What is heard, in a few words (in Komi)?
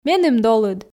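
"меным долыд", Komi, female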